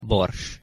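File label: "bors", Hungarian pronunciation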